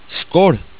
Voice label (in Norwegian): "skal", Norwegian